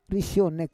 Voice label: "risionek", Roon